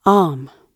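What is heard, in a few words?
"arm" spoken, British English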